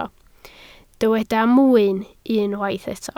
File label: "mwyn", Welsh